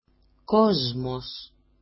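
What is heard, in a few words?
"kozmos", Greek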